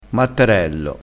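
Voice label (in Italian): "mattarello", dialettale crespinese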